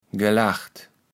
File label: "gelaggt", Kaevels Platt